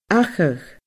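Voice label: "achadh", Scottish Gaelic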